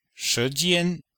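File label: "shi jian", Chinese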